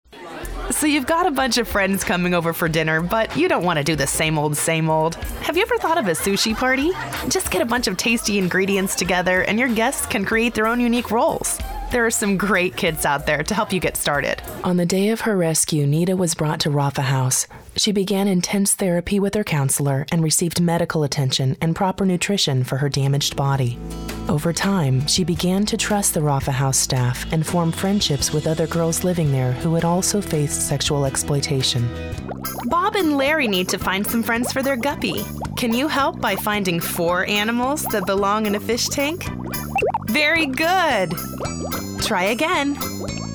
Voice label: Narration Female